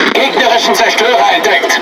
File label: Speech Detection Enemy Destroyer, DE; World Of Warships